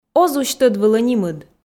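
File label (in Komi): оз усь тӧд вылӧ нимыд, Komi, female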